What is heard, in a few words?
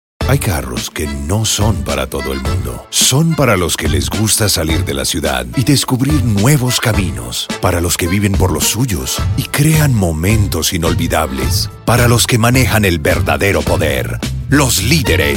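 Latin American Spanish, General; GoLocalise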